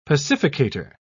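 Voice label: "pacificator", British English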